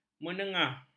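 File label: "menengah", Malay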